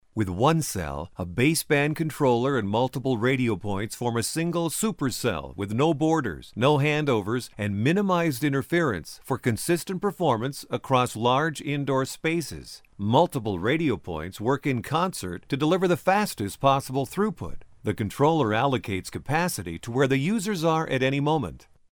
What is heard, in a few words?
Narration Male Corporate